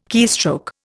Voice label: "keystroke", Indian